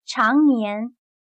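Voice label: "常年", Chinese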